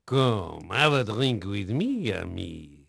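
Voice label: French male, voice; Pirates Of The Caribbean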